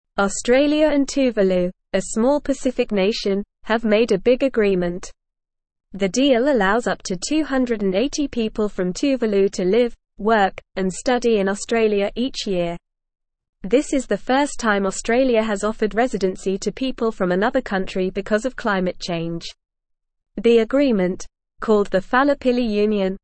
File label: English Upper Intermediate NORMAL Reading; Australias Historic Pact Refuge for Tuvaluans fro; English Newsroom